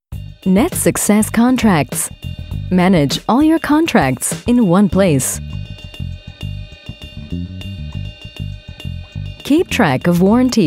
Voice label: Doku

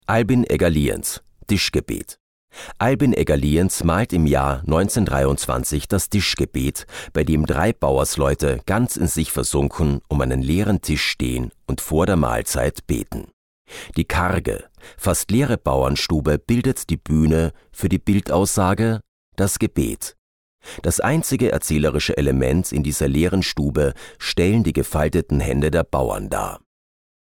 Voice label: Hoerbuch D

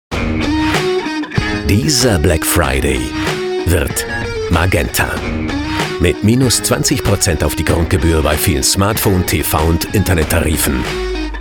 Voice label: Werbung druckvoll

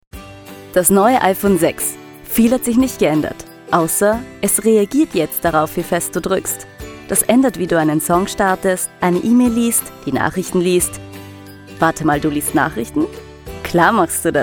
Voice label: Werbung DE

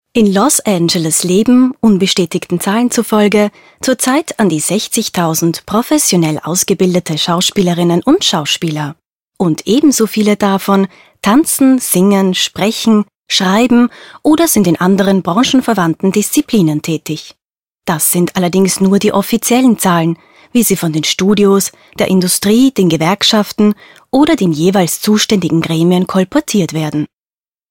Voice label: doku; losangeles

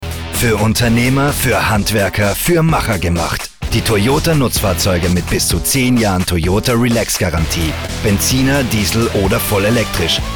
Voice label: Werbung, D

